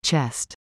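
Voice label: "CHEST", English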